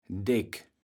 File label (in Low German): "deck", kaevels platt